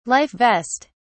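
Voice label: "life vest", British English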